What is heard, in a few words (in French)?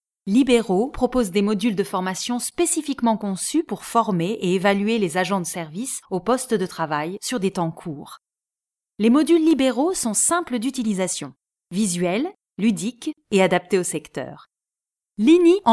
e-learning mode d'emploi, voix